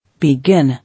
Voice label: "Begin", English